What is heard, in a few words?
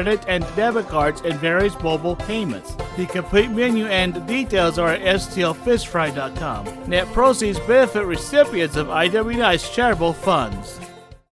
Fish Fry Promo Spot; IWKnights Lenten; 2023